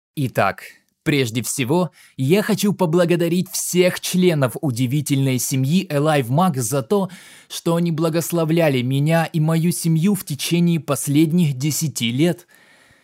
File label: Corporate training in Russian